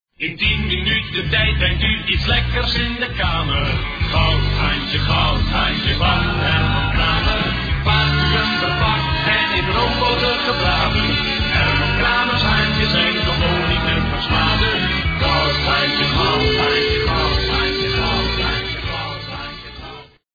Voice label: commercial